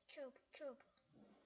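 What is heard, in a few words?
"cube", spoken word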